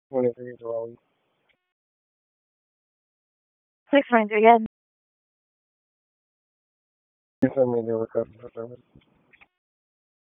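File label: Police Southwest District Dispatch; Raleigh; wake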